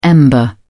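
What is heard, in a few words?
"ember", British English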